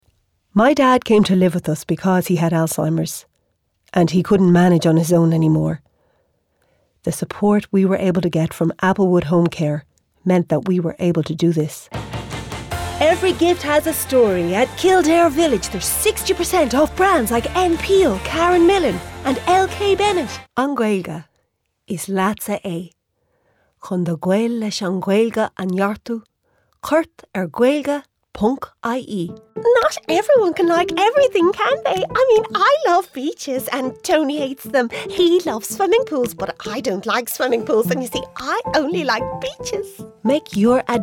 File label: commercial